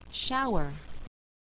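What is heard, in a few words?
"shower", English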